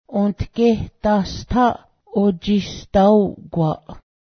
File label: necklace, Cayuga